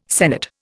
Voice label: "senate", Indian